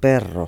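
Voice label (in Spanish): "perro", Spanish, Galicia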